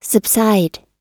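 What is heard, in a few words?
"subside", American English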